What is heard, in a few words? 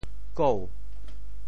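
"kou2", Teochew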